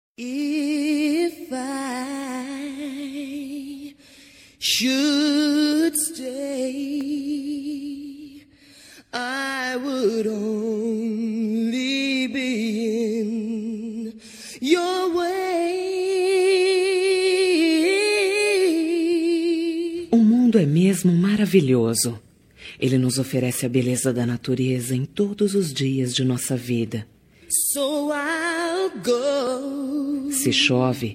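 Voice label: female; quer casar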